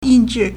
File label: "yin4 zhi4", Chinese